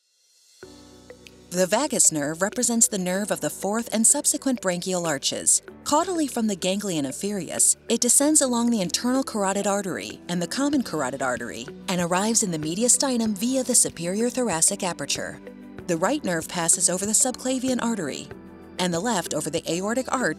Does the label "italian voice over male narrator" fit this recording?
No